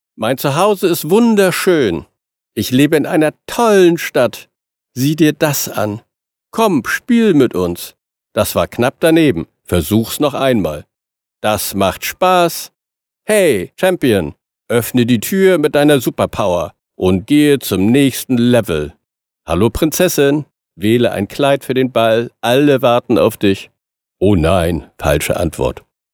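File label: German male toy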